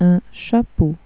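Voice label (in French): "chapeau", French; tdegames; ktuberling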